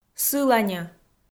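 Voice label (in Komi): "сыланьӧ", Komi, female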